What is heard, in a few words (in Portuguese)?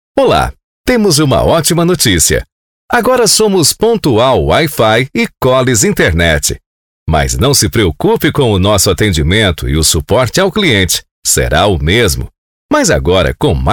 espera telefonica